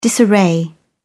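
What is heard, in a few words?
"disarray", British English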